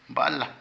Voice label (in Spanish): Culebra, Zapoteco de Petapa; Animales; Vocabulary